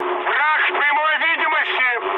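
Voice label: Russian enemy detected mood high, speech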